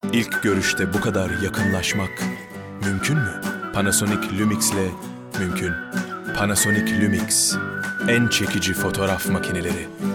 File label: male turkish compilation